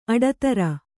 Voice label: "ಅಟ್ಟಿದಿರು", Kannada